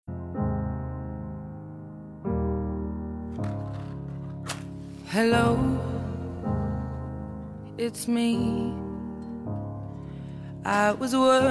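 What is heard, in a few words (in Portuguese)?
ROMANTICA PARA CASAIS male, telemensagem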